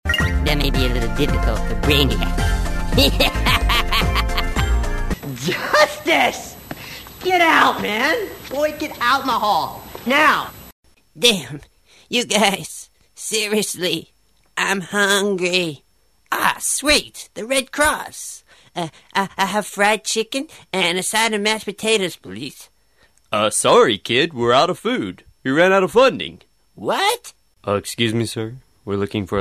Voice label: character, USA M; narrator